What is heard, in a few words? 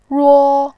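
"ruo", Mandarin Chinese, first tone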